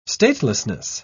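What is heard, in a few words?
"statelessness", British English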